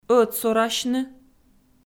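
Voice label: "ӧтсорасьны", Komi, female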